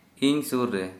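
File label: "near here", Santali, male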